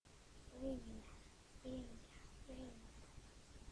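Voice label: "ring", spoken word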